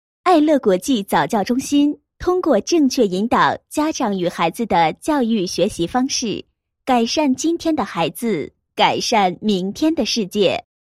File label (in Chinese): 女 宣传广告 早教中心宣传; 爱乐早教中心